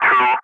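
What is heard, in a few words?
Speech, English, Digits, 3 continue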